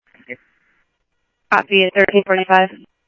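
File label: Simulcast police department, scanner audio trunk; Mesa Simulcast; MESA PD Superstn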